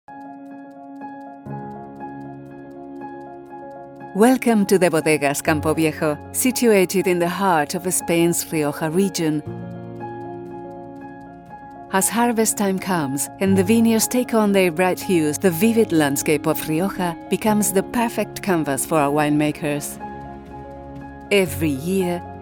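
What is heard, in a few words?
Spanish, female, accented English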